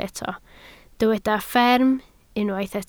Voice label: "fferm", Welsh

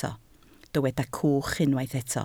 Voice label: "cwch", Welsh

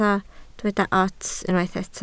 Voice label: "ots", Welsh

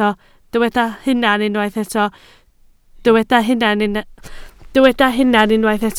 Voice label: "hunan", Welsh

